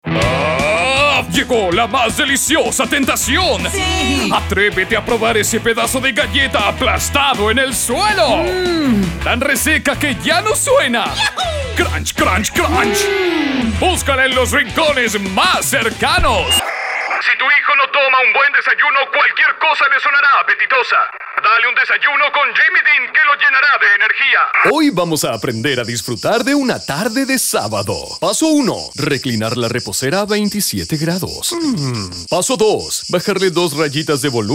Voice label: Voice Actor Neutral Spanish LATAM character vo